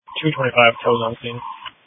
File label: Police Dispatch